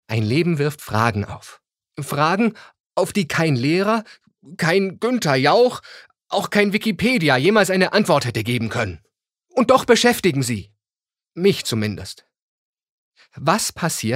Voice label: Schauspiel, Dynamisch

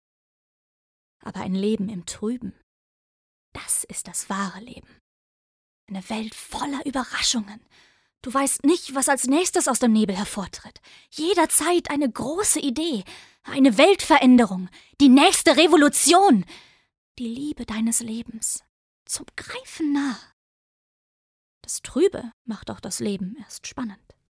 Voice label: Klarheit Dynamisch

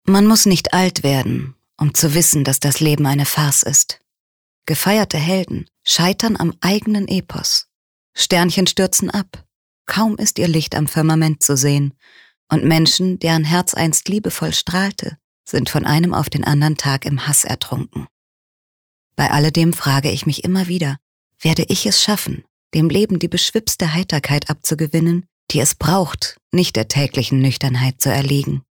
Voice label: Weltschmerz Sanft

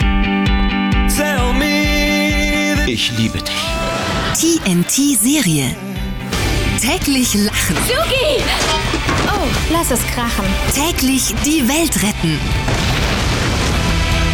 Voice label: Dynamisch

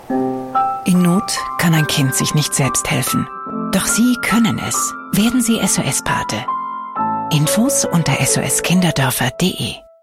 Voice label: Sanft; Fremdtake

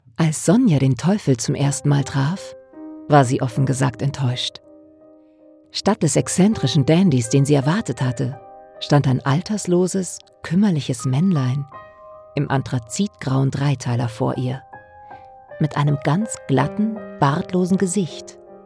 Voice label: Dynamisch

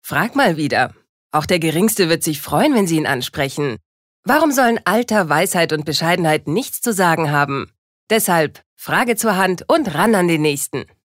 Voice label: Werbung Dynamisch

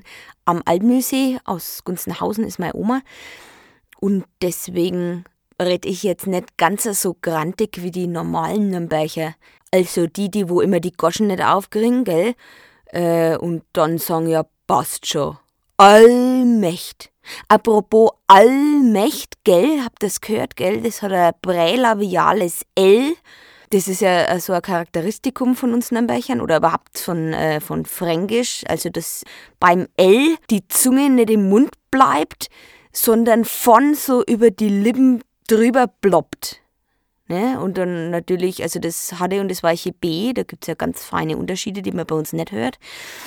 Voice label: Dialekt Fraenkisch

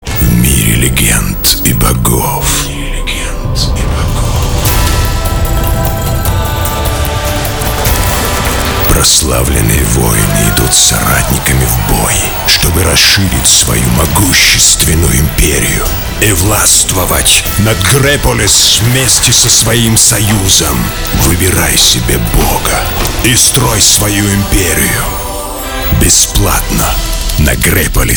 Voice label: RU Dynamisch; Fremdtake

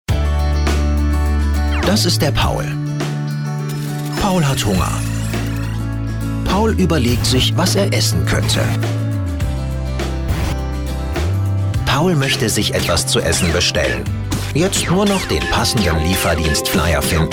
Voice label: Werbung Freundlich

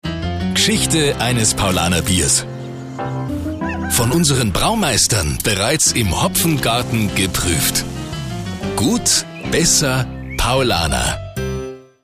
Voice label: Bayerisch, Freundlich; Paulaner

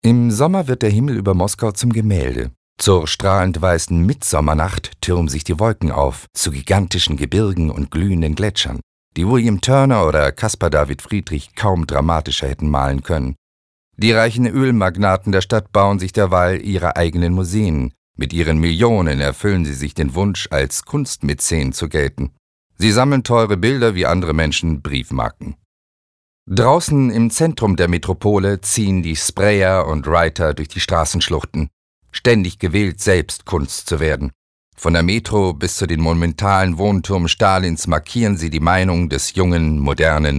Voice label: Kommentar Neutral

